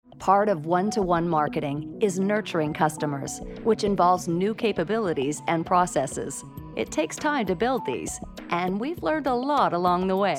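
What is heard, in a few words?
English Canadian, female, narration; accent of canada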